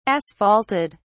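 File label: "asphalted", English, British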